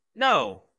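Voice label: Voice Acting, Spanish, male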